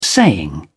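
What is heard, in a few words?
"saying", English, British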